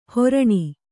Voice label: "ಹೊರಣಿ", Kannada